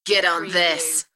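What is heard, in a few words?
British female Get on this; beanstalk audio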